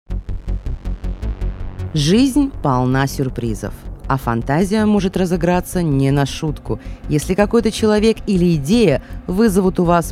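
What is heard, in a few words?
Russian, female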